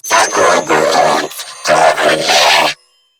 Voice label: Cover Me Grineer Female; en; New War; Narmer Grineer